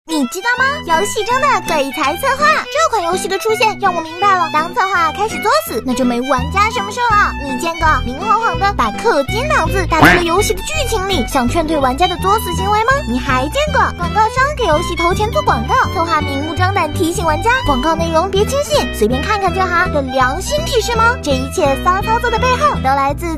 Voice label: 活力游戏抖音解说; 活力游戏抖音解说

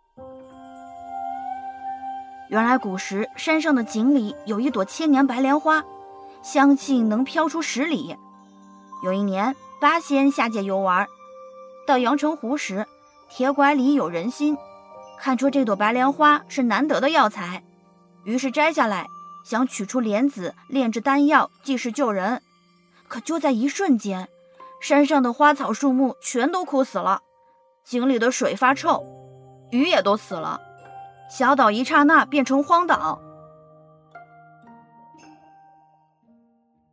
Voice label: 角色配音 少年音

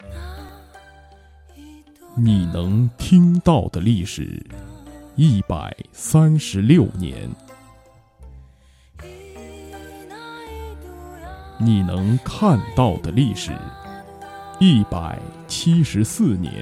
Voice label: 白酒广告

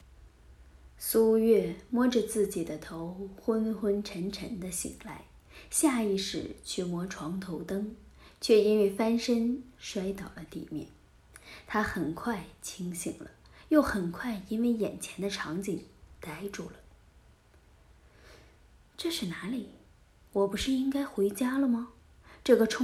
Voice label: 穿越剧 配音

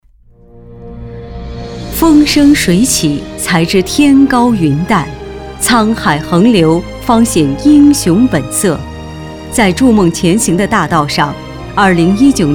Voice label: 工作 纪实

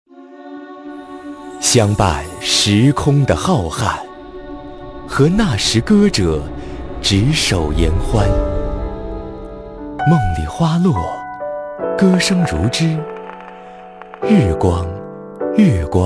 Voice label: 省台广播节目片头

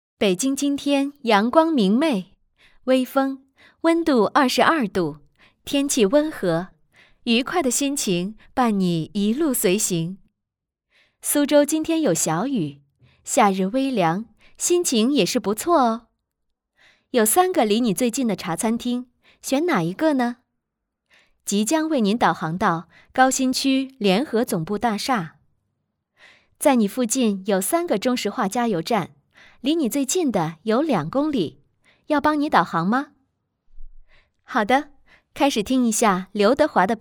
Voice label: 甜美汽车导航

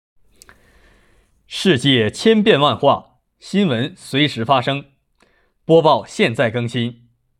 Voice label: 播音

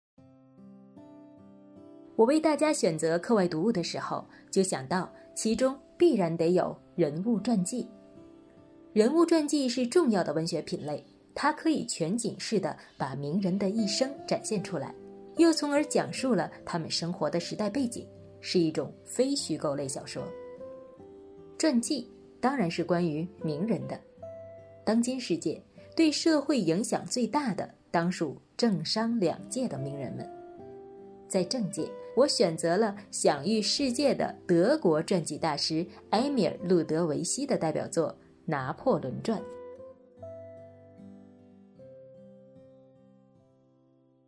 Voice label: 名人传记成熟睿智风格

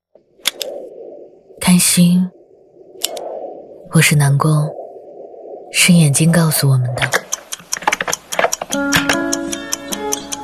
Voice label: 美妆走心; 资生堂

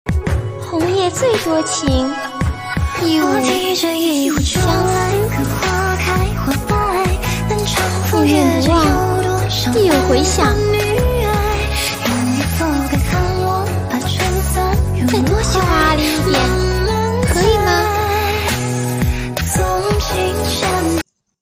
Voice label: 游戏配音; 阿离

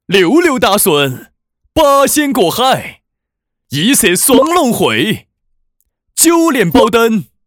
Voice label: 四川话棋牌男

